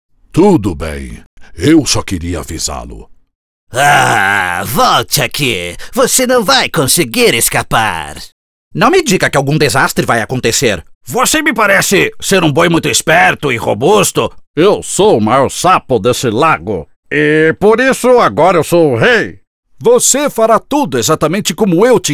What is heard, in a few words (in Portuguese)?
VOZES DUBLAGEM